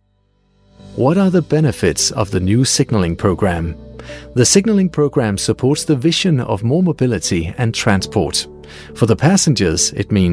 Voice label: danish male english e-learning